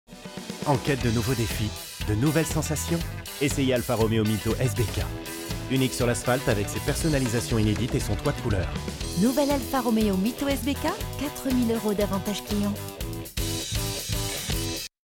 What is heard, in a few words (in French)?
signature douce, voix off comédienne; Alfa Roméo Mito SBK